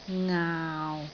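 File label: "ngaau6", Cantonese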